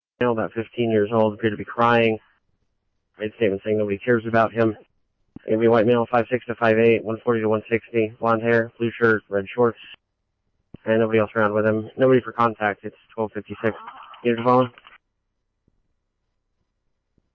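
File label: scanner, Phoenix Police Department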